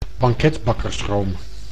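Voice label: "banketbakkersroom", Dutch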